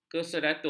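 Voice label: "keseratus", Malay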